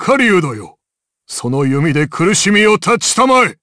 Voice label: Vox Skill jp; Dakaris; kingsraid